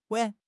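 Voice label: "wan3", Chinese; HSK; HSK3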